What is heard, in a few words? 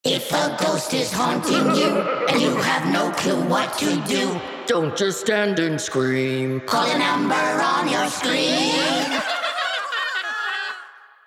Commercial VX; Morticians Club; The Loud House: Save Royal Woods